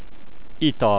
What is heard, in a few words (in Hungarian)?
beverage, hungarian